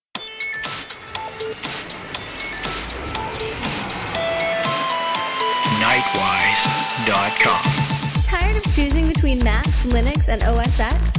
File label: promo